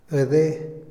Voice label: "oír", Otomí, hombre; Percepción; INALI

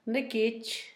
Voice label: blanco, Zapoteco, Asunción Ocotlan; Adjetivos; INALI; Haspelmath

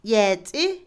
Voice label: Otomí, mujer; segar, cosechar; INALI

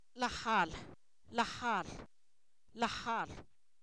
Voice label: Zapoteco, san miguel albarradas; su nombre; posesivos

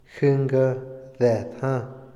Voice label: harina de maíz, Otomí, hombre; INALI

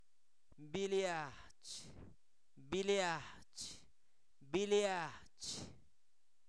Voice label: almácigo, Zapoteco, santo domingo albarradas; comestibles; INALI

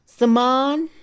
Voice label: semana, Mixe, Mujer; INALI

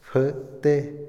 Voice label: techo, Otomí, hombre; INALI

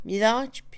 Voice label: tener, Mixe, Mujer; Posesión; INALI

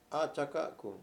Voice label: alado de, Mayo

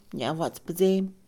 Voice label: absolver, Mixe, Mujer; INALI

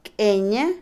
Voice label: culebra, serpiente, Otomí, mujer; INALI

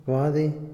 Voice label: "adivinar", Otomí, hombre; INALI

